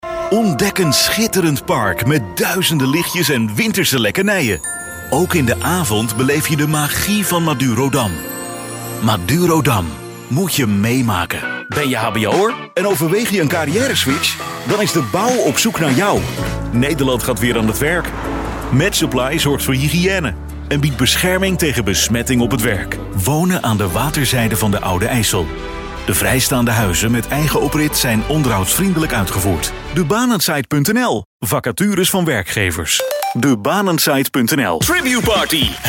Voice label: dutch male commercial